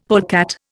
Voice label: "polecat", Indian; Dictionary

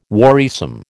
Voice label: "worrisome", American

